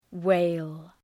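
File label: "wail", English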